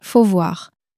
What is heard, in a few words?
"faut voir", French